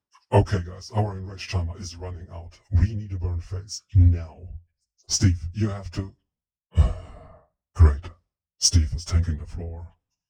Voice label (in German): Blue Yeti X Sample